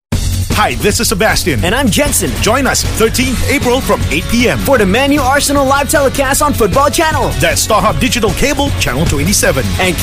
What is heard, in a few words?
Asian English Screening; Starhub BPL